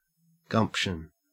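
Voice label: "gumption" Australian English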